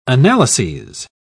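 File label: "analyses", British English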